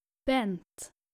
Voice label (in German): "bendt", nordische jungennamen